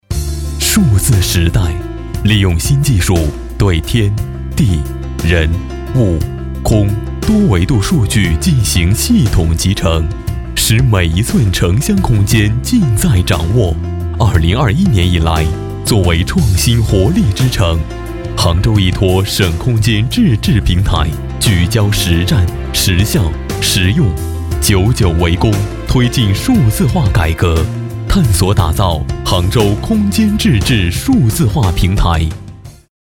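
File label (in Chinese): 男国大音-科技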